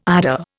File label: "eider", Indian